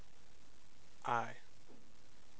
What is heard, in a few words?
Vowels voiced i